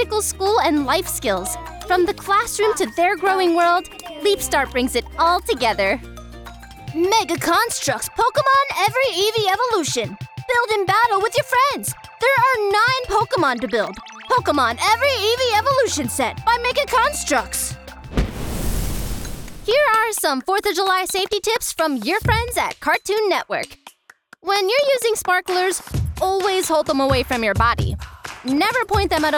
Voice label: Kids Commercials